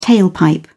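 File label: "tailpipe", British English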